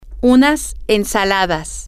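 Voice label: some salads, Spanish, female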